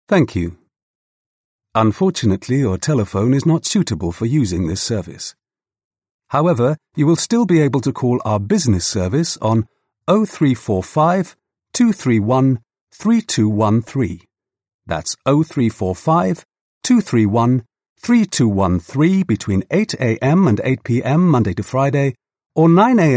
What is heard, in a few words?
English M IVR